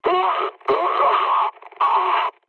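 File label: damaged pilot, mood high, French; War Thunder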